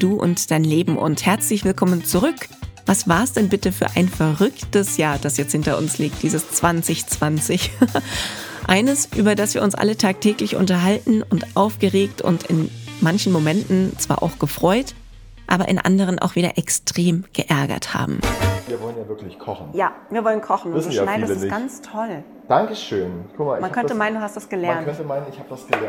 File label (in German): Podcast